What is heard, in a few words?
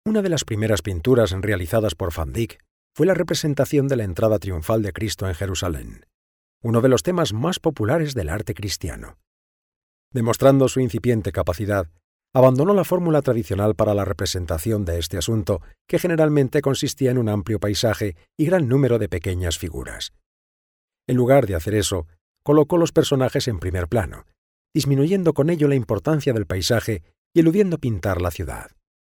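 Spanish Spain, male, voice over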